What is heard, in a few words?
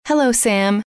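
hello, female; trainer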